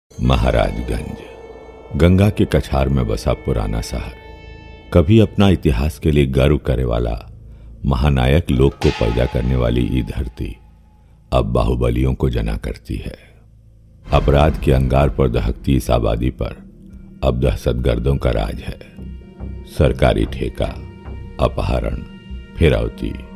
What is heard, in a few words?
Bhojpuri, General